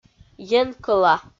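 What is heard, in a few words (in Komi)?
"енкӧла", Komi, female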